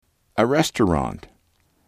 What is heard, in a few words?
"a restaurant", english